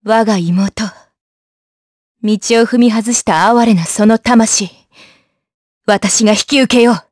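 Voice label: vox trs Japanese; Seria; kings raid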